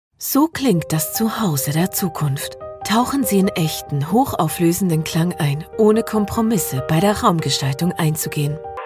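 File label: Corporate advertising German Female Voiceover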